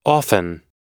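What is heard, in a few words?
"often", American English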